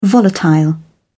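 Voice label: "volatile", British English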